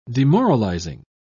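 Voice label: "demoralising", British English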